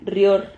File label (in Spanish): "rrior", Silabas